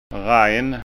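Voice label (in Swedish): "rajn", Skåne dialect Swedish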